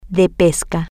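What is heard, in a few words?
Spanish, female; fishing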